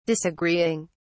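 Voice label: "disagreeing", British English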